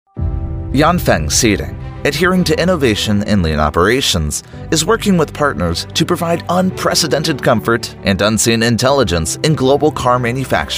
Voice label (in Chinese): 美式男声 大气宣传风格